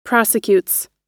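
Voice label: "prosecutes", American English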